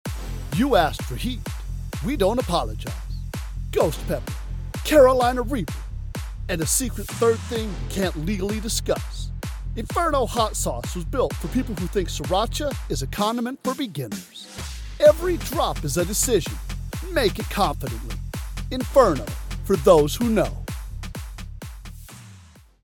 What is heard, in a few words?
Hot Sauce Commercial; Inferno Hot Sauce